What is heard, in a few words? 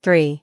"three", voice